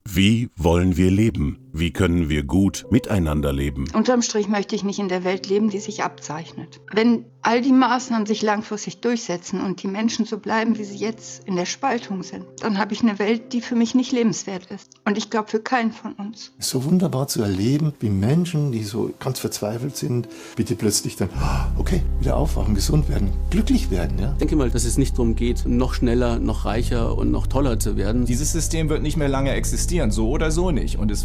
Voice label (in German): Radiospot